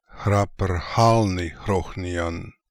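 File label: "Khraprkhalnikhrokhnian", pronunciations; Fourth Way; Beelzebub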